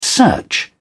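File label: "search", British English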